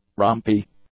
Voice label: "rumpy", English; adjectives